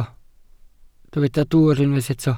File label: "dwwr", Welsh